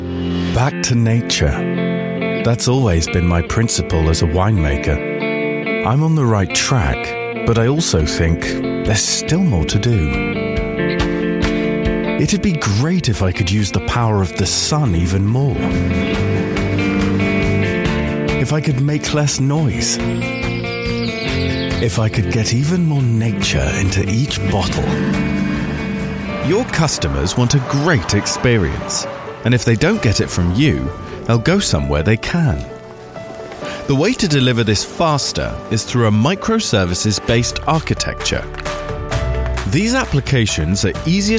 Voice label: explainer